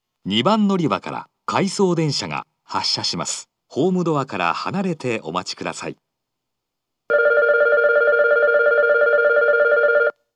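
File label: departure non-service, station announcement, Fukuoka city subway; 発車-回送列車; 福岡市地下鉄 空港線・箱崎線 中洲川端駅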